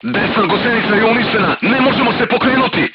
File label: voice message right track knocked down, crew tanks serbian